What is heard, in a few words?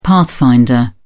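"pathfinder", British English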